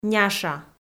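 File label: "няша", Komi, female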